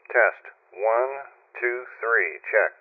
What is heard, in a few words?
Voice filtered